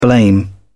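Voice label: "blame", British English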